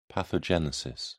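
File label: "pathogenesis", British English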